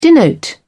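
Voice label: "denote", British English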